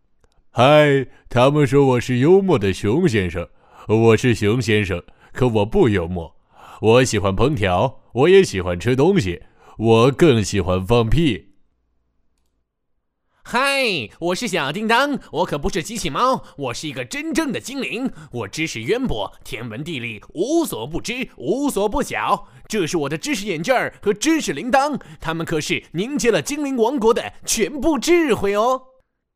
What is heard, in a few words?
boy; Chinese; katong; hanxiong